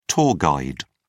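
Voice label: "tour guide", British English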